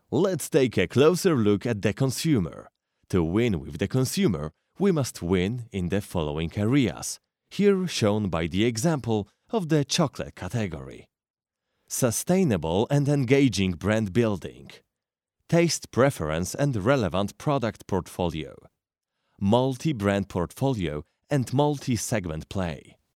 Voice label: male polish voice over talent english